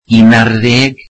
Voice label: welsh eleven